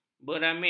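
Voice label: "beramin", Malay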